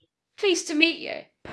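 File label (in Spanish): Voice Acting, Spanish, female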